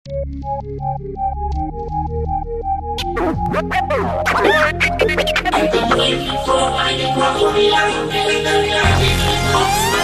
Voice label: Russian Rap